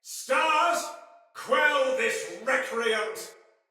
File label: See Player Shout, Soldier Male; Sinecure Soldier Male A